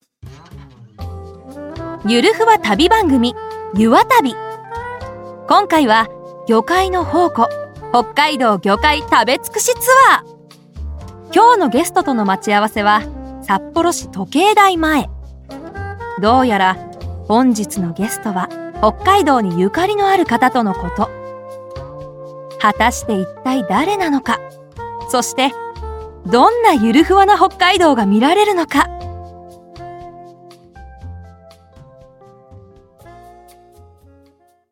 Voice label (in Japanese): ナレーション